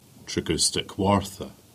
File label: "Higher Tregustick", Cornish; place names